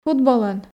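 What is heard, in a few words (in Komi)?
"футболӧн", Komi, female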